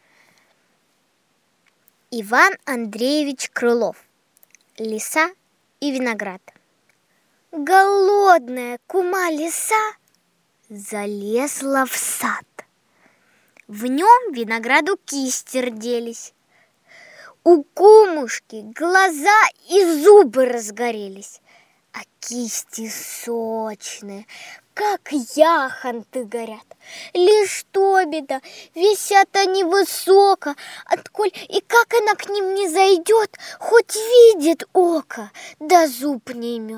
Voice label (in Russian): Detskiy golos